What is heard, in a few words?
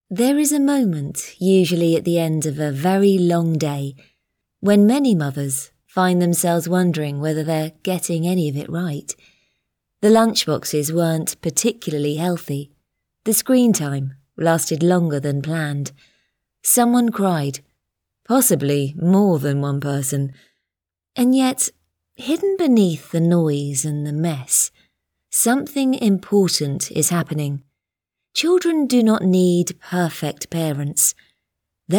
Parenting Audiobook